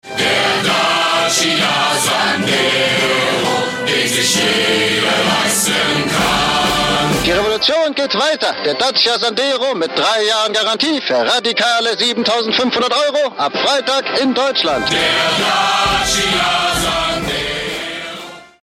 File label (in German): radiosprecher stimme der ddr; Radiosprecher Stimmer der DDR